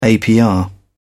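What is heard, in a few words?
"apr", British English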